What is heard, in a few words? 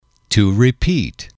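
"to repeat", English, male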